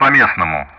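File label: Speech Sound Russian; Common Player Messages Zulu; DCS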